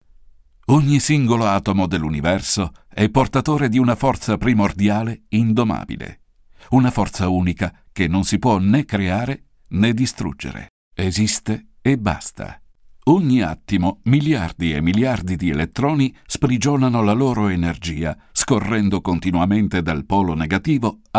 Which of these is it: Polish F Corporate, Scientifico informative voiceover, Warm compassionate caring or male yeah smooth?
Scientifico informative voiceover